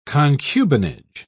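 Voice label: "concubinage", British English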